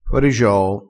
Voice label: "forigioo", crespinese

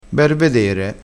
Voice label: "bervedere", vocabolario dialettale crespinese

